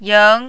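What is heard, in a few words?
"ying2", mandarin, rising tone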